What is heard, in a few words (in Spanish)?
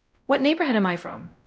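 Voice Acting, es, female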